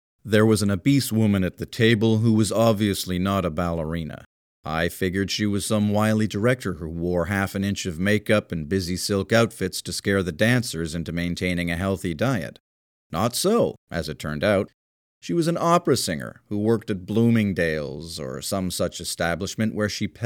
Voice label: Audio Book Narrator